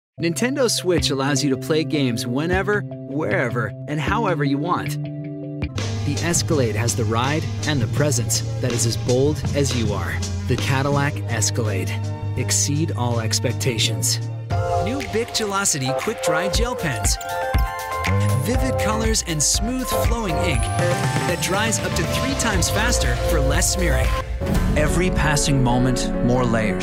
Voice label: Commercial, Men

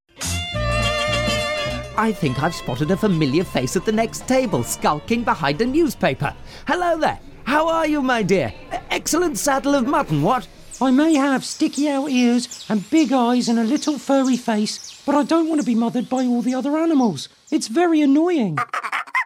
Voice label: Character, Video Games

